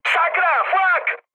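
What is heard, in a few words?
damaged aa, Czech, mood high; speech; War Thunder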